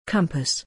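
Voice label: "compass", English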